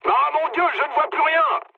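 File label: searchlite, mood low, French; War Thunder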